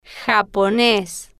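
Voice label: "japones", slow, Spanish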